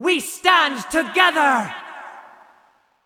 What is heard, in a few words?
Nonbinary Attack; Valkyr